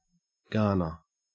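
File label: "garner", Australian English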